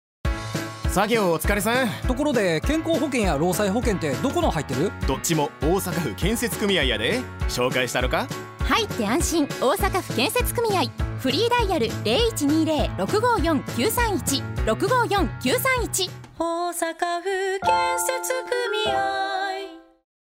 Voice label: radio; osaka